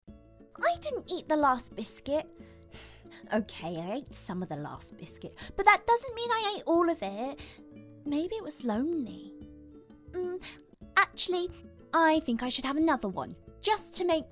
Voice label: Character